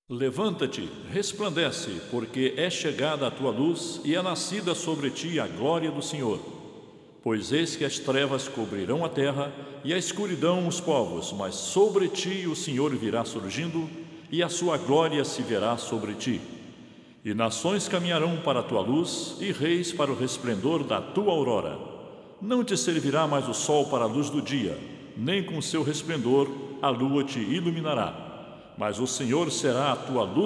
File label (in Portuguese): Narração